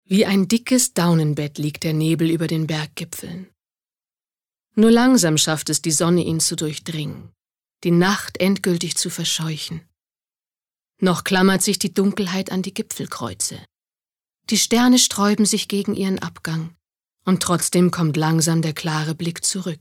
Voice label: Schauspiel Variabel

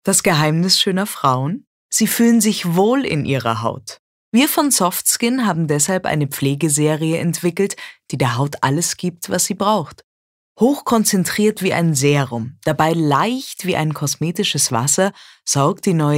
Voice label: Neutral; SoftSkin

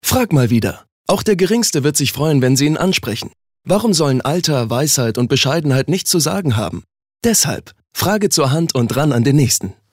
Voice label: Werbung Cool

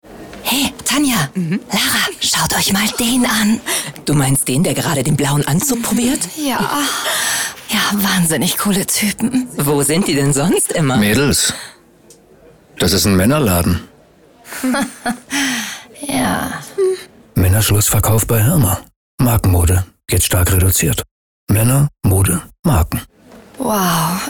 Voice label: Aufgeregt

